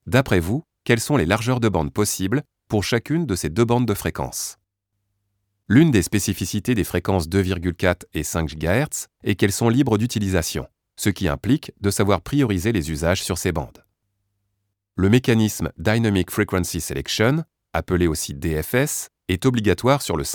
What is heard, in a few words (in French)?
ELEARN WIFI Voix Off